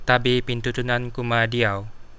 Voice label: meet, kadazan

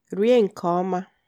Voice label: bon appetit, igbo

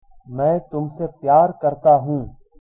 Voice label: i love you hindi

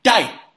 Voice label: enough, hebrew

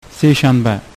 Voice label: tuesday, uzbek

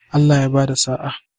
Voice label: good luck, hausa

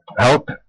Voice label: help, west flemish

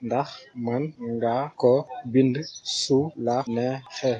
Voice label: write, wolof

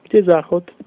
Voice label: toilet, slovak

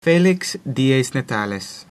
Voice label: birthday, latin

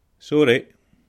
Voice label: sorry scots

